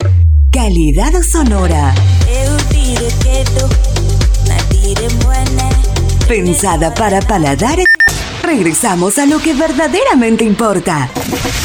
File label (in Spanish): Arte Ambient FEM Primavera; A dos voces y acento neutro!; primavera 2025